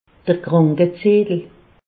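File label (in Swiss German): "krankezettel", Alsace, Haut Rhin; medecine; feuille de soins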